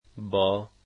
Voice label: "with", Dari